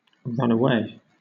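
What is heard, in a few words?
"run away", British English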